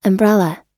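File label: "umbrella" English word; oxford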